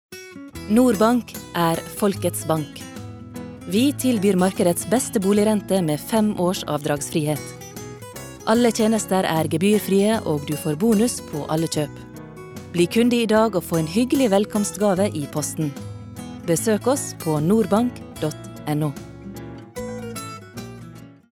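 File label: Norwegian commercial sunnmore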